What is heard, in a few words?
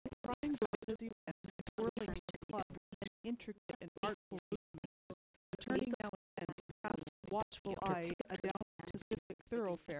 female; SASSEC07